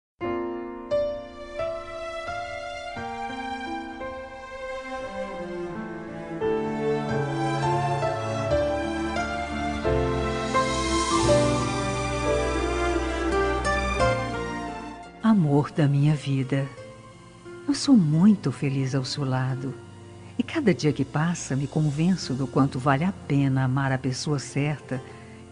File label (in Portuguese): dia dos pais marido feminina e filho